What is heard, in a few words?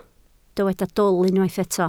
"dwl", Welsh